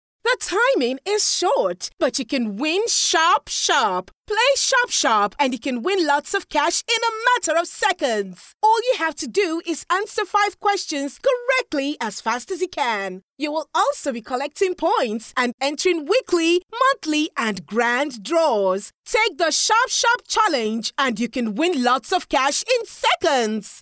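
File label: English African Female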